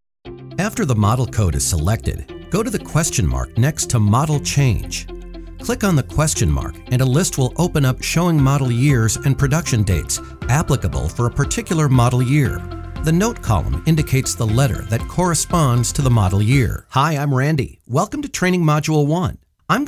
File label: eLearning Samples